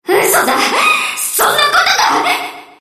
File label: Enemy Voice Damaged Under Attack